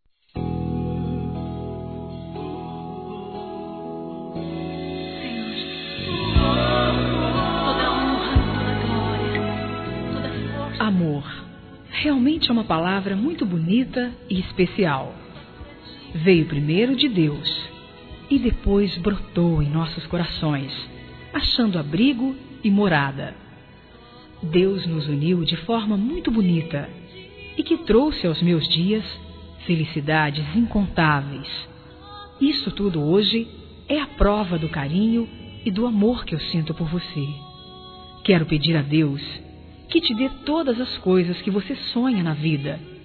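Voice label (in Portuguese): ROMANTICO EVANGÉLICO, feminino; Telemensagens; Telemensagens 2017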